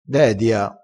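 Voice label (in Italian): "dedia", dialettale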